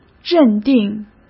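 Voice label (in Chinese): "认定", Mandarin; chinese-flashcards; hsk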